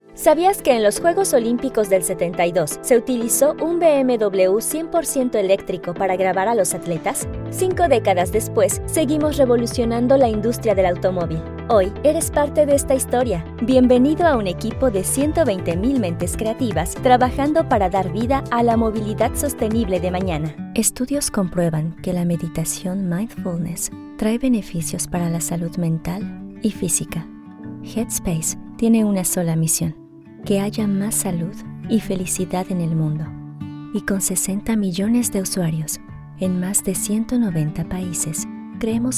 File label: corporate Latin American Neutral Spanish